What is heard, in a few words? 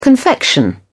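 "confection", British English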